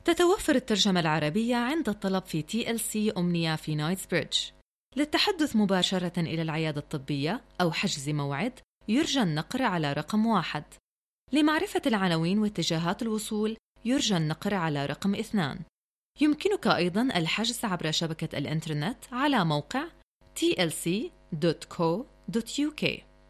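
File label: Arabic F IVR, female; voice over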